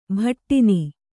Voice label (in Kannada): "ಬಡಿನ", kannada